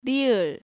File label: "Rieul", Korean